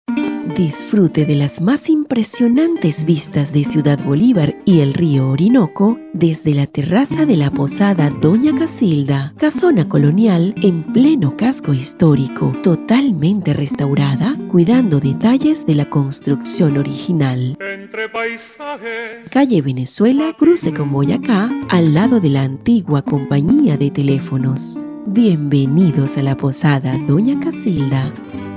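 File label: comercial, Venezuelan Spanish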